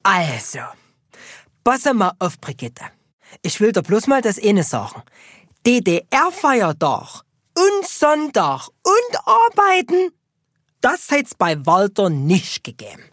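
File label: German, Saxony Dialect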